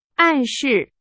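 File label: Chinese, vocabulary; hsk4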